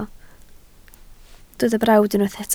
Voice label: "brawd", Welsh